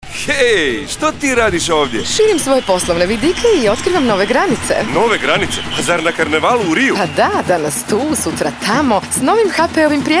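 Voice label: croatian male commercial; voice demos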